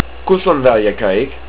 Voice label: Bathroom, estonian

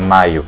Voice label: may, portuguese

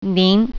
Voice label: you formal, mandarin

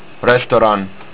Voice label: Restaurant, estonian

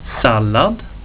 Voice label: "Sallad", swedish

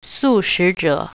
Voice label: vegetarian, mandarin

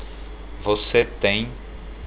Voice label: have, portuguese

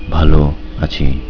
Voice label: "Valo Asi", Bengali

